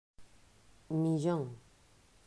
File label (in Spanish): "Millon", pronunciacion; mausschool